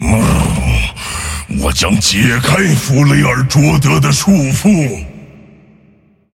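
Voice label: move, Chinese; Volibear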